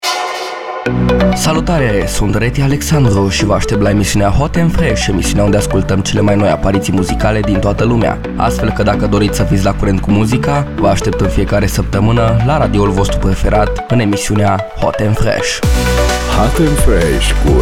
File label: Promo